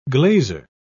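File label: "glazer", British English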